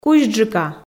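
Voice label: "кузьджыка", Komi, female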